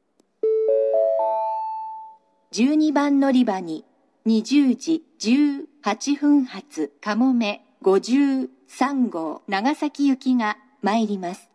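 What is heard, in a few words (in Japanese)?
12番のりば接近予告放送, 女声, 西九州新幹線ホーム 自動放送; 12番のりば接近予告放送（かもめ53号 長崎行き）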